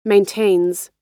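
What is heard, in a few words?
"maintains", American English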